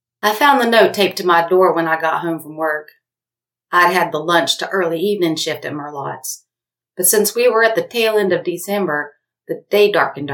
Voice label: fiction american southern female storyteller